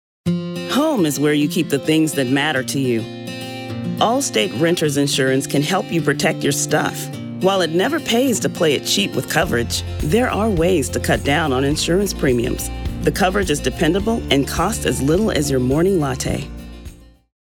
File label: Corporate